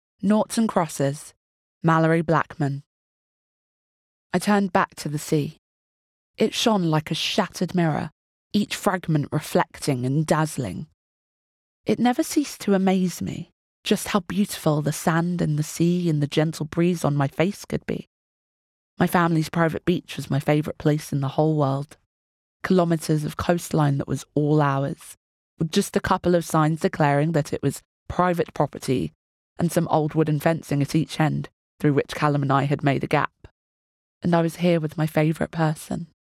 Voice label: AUDIOBOOK